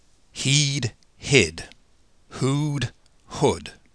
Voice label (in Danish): engelsk heed hid; engelsk [hi:d hɪd hu:d hʊd]